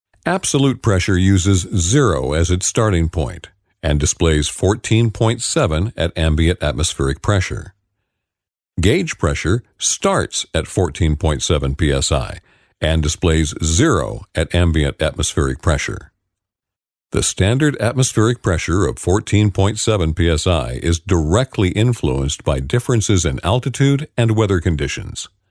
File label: Instructional